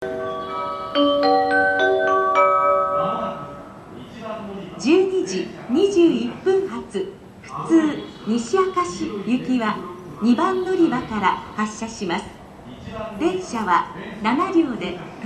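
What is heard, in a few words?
Sumakaihinkoen advance notice; 次列車予告放送; 過去の音声（接近メロディー変更前）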